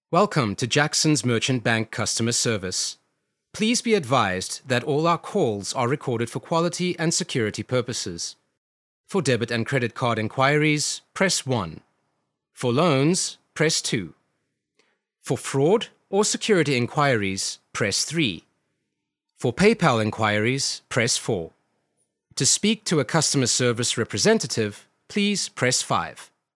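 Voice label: Afrikaans English Male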